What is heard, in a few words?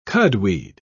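"cudweed", British English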